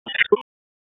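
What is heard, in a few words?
Raleigh Police North District Tactical, p25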